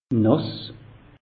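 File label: "nass", Alsatian, Haut Rhin; meteo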